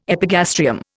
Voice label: "epigastrium", Indian